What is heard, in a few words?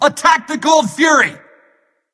vox attack; smite; ra; voicepack